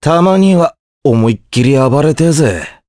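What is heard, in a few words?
voice dia, voices heroes, Japanese; Mitra; kingsraid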